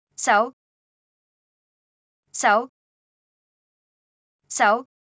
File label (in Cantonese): 手, Cantonese; Traditional Chinese